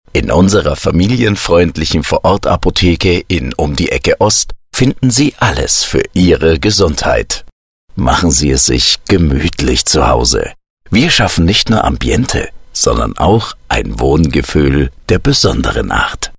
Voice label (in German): vertrauensvoll heimelig